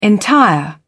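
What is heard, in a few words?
"entire", English, British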